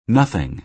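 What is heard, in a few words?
"nothing", American English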